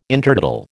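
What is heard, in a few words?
"Intertidal", American English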